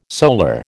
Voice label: "solar", American